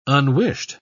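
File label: "unwished", English, British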